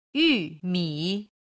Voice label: "yumi", Chinese